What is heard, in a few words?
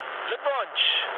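German player killed enemy mood low, speech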